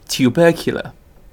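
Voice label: "tubercular", British English; pronunciations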